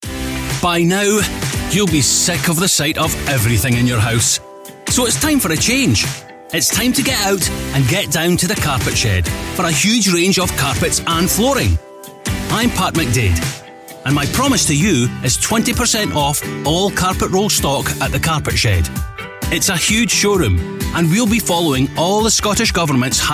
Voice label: radio ad; carpet shed

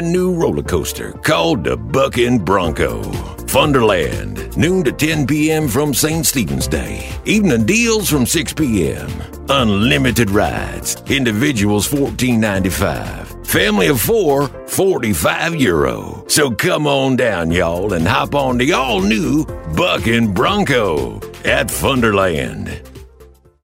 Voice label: deep american, old cowboy

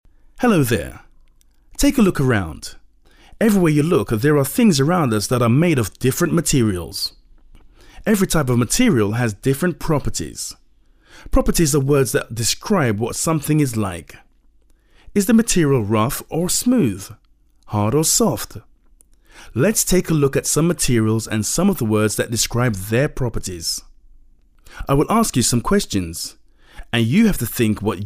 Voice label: corporate

